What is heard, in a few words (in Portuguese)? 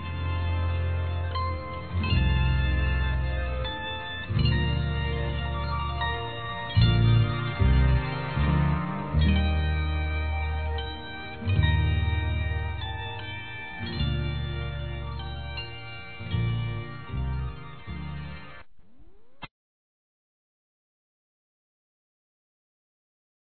NATAL F, Telemensagens, Gospel; fem; Telemensagens 2017